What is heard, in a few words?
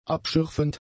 "abschürfend", German; adjectives